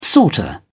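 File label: "sorter", British English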